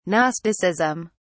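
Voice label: "gnosticism", British English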